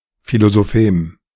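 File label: "Philosophem", German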